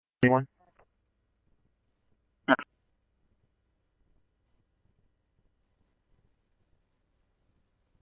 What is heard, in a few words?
Chandler Police, scanner; CPD-Ptrl B; RWC; C-Chandler